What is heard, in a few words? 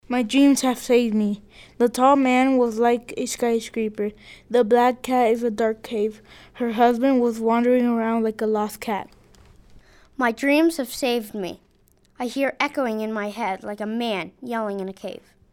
voices group